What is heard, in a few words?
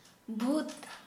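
ghost, Santali, female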